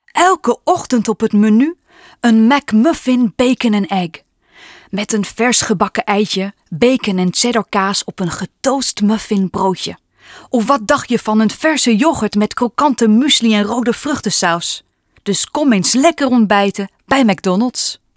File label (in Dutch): Stemdemo NL; McDonalds